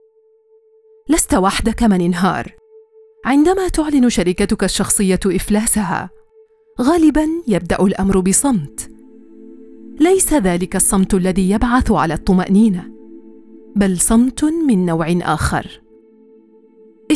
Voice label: arabic audiobooks, arabic voice actors